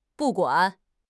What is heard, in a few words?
"bu4 guan3", 汉语口语; 汉语口语新时代-初级下